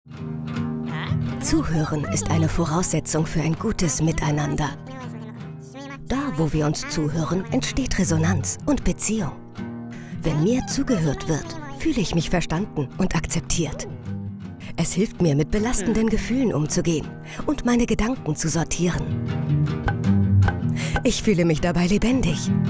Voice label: Lehrfilm Telefonseelsorge; LOFT VOICES

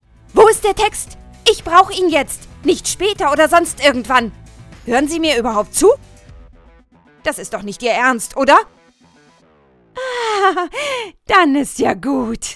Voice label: Szene dynamisch

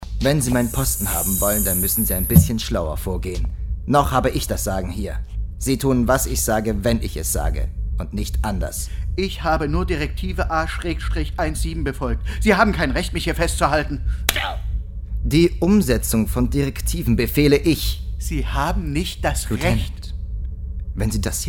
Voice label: hoerspiel; ray connor saga

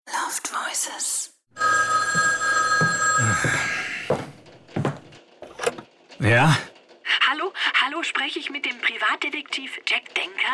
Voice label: Hoerspiel

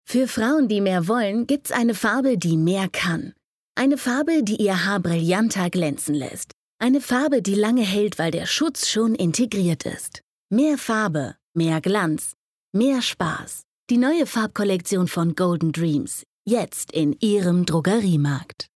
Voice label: werbung haarcoloration

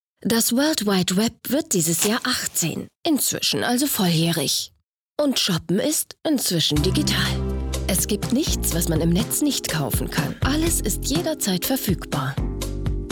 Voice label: Dokumentation

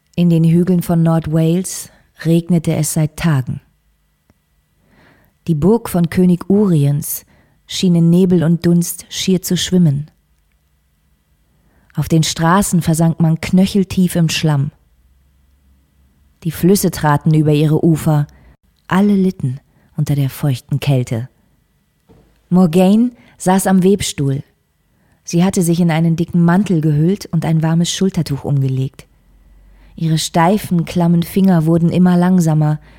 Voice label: erzählerstimme; die nebel von avalon

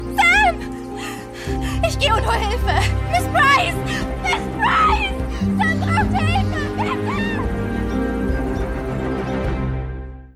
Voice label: Synchron panisch; Mina aus Ruby und der Wunschbrunnen

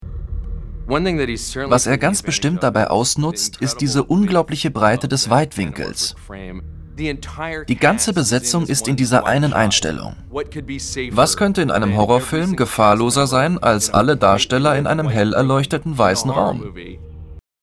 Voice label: Voiceover Filmkritiker heiter heller; SKY; LOFT VOICES

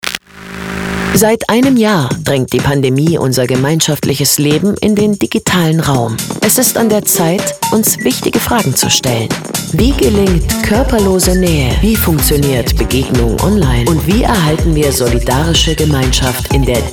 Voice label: Trailer; HAU Hebbel am Ufer; Spy on me

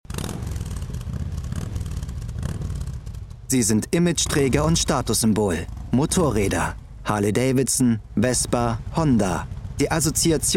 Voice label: off text motorrad doku; LOFT VOICES

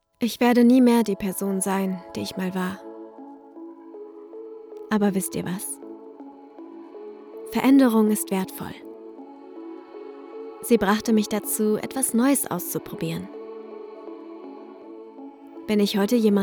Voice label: Werbung gefuehlvoll

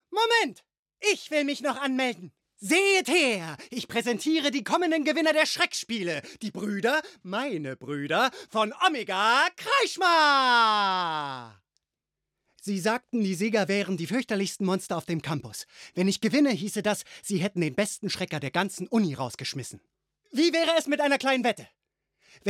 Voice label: Animation

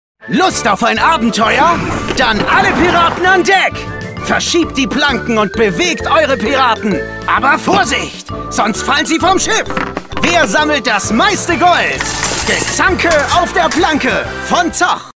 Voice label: Werbung rauh nordisch piratenmaessig; Zoch

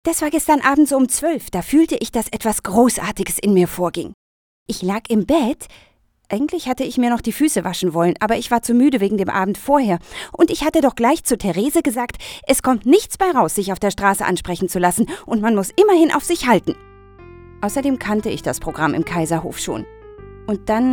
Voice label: Ich Erzaehler

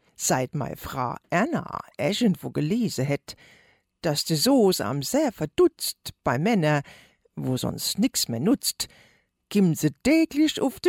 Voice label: dialekt

